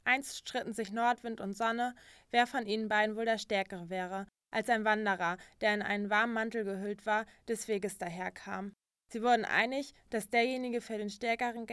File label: female, 0 km/h, lombard